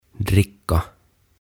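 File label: "Dricka", Swedish; Juoda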